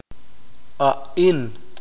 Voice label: "esto esta", Q'eqchi'

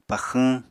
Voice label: "paxwñ", Apatani

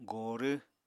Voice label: "gorw", Apatani

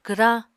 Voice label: "kwrañ", Apatani

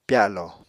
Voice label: "pyalo", Apatani

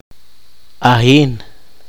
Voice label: poqomchi; lagarto

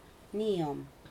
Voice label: "house", Aneityum

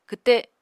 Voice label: "kwte", Apatani

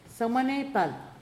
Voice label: "twenty-eighth day of month", Pingelapese

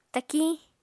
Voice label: "takiñ", apatani

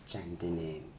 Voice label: "toes", Mokilese

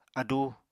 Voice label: "adú", apatani

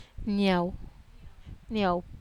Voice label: "niau", Wamesa

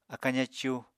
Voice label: "akañ zacú", Apatani

